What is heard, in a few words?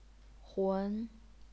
"hun2", chinese pinyin